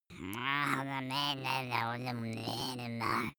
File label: goblin mumble